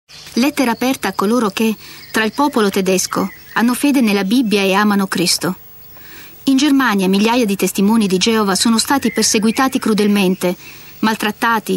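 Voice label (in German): italienisch, Telefonansagen, weiblich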